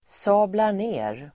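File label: "sablar ner", Swedish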